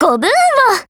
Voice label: HELLO JP